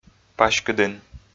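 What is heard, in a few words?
"паськыдін", Komi, male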